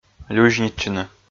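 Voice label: "люзьнитчыны" Komi, male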